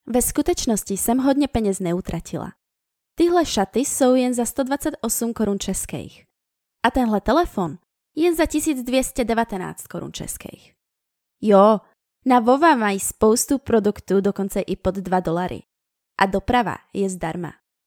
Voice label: Czech